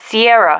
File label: "sierra", female